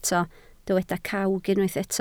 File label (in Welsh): "cawg", Welsh